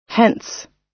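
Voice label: "hence", US English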